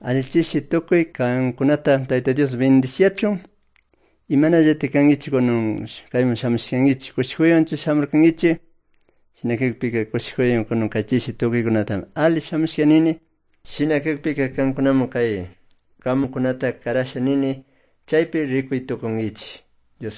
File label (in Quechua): good news quichua cayambe; quechua; bible